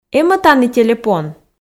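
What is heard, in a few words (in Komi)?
эм-ӧ тані телепон, Komi, female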